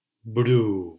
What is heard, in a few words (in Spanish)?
"bru", silabas